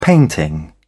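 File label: "painting", British English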